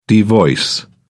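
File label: "devoice", British English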